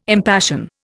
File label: "impassion", Indian